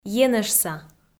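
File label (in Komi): "енэжса", Komi, female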